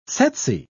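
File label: "tsetse", British English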